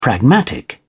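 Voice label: "pragmatic", English, British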